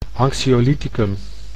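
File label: "anxiolyticum", Dutch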